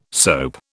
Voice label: "soap", British